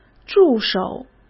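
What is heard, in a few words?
"助手", Mandarin Chinese; hsk; chinese flashcards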